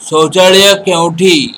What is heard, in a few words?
toilet, odia